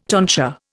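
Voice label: "tontine", Indian; Dictionary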